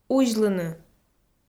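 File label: "узьлыны", Komi, female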